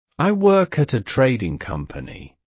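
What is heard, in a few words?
I work at a trading company, British English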